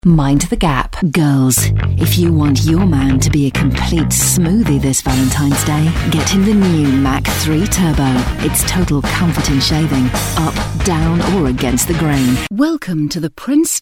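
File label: UK female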